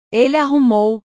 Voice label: "ele arrumou", portugues

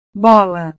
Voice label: "bola", portugues

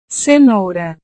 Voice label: "cenoura", portugues

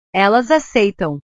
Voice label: "elas aceitam", portugues; verbos

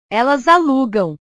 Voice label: "elas alugam", portugues

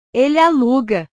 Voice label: "ele aluga", portugues

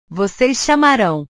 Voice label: "voces chamarao", portugues; portugues/verbos

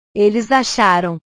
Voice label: "eles acharam", portugues; verbos

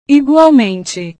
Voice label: "igualmente", portugues

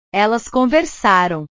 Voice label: "elas conversaram", portugues; portugues/verbos

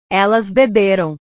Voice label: "elas beberam", portugues

